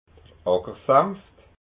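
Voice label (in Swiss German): "ackersamft", Alsatian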